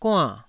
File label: "guann4", Taiwanese syllable